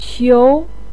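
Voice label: "qiu", Chinese pinyin, second tone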